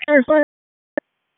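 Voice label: Fire Department HQ Dispatch; RFD HQ DISP; wake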